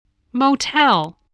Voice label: "motel", voice, spelling